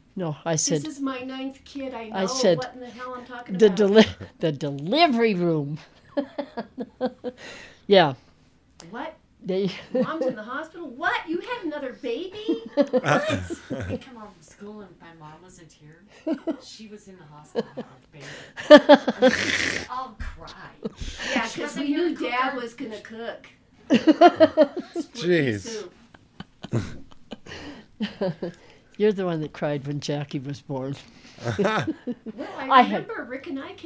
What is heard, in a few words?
Virginia Chatter